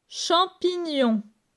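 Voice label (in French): "Champignon", French; phonetique; la graphie gn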